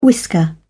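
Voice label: "whisker", British English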